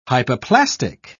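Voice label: "hypoplastic", British English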